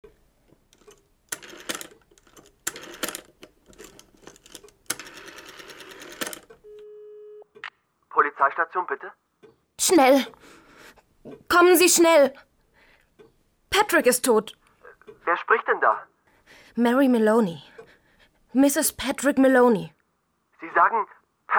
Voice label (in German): hoerspielkrimi